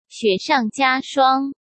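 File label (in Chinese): "雪上加霜", Mandarin Chinese; hsk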